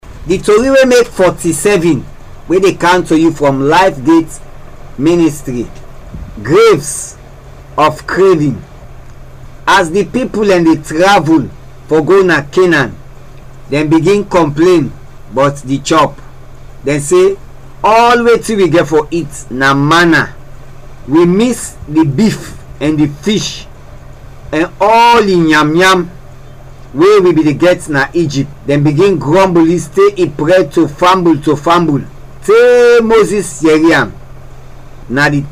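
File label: Krio, Sierra Leone; Africa; Stories; GRAVES OF THE CRAVING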